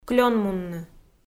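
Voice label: "клёнмунны", Komi, female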